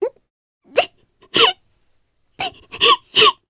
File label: Vox Sad, jp; voices; heroes; Reina; kingsraid